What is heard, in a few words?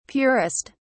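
"purest", British English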